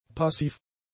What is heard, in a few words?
"passiv", German; adjectives